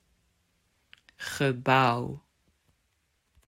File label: "Gebouw", Dutch